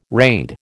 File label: "raid", American